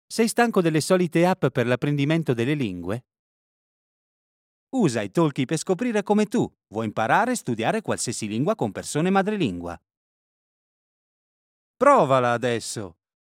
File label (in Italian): ITA COMMERCIAL; ITALKI